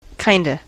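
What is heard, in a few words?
"kinda", American English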